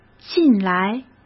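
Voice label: "近来", Mandarin Chinese; hsk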